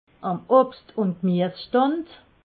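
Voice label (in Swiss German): am obst, Alsatian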